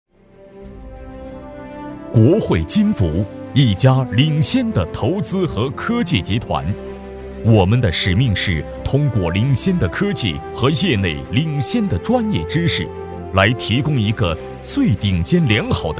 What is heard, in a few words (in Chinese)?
男 理财平台（大气）; 项目汇报